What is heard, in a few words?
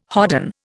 "hodden", Indian